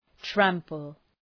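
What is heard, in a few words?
"trample", English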